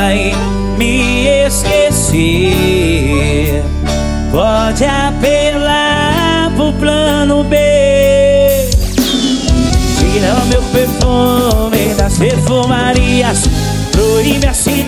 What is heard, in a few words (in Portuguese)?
locutor a voz magnifica